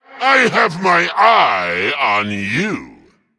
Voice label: Male Play; Darkglare

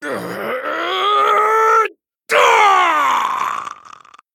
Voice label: Male Night Elf Death

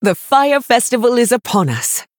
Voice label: Female High Elf FIRE FESTIVAL

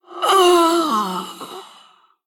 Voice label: Female Banshee Death

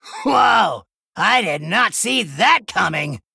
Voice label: Male Gnome Wow

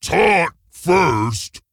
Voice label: Ogre Emote error taunt

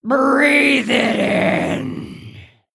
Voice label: Female Human Play

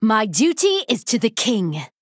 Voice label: Female Human Play

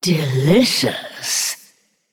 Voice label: Female Naga; TRIGGER WITCHS BREW

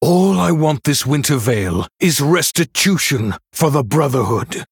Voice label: Male Human HAPPY HOLIDAYS

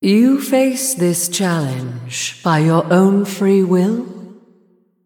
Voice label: Female Night Elf

